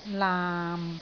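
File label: "laam6", Cantonese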